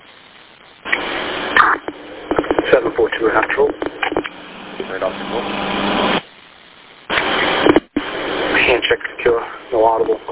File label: Police Radio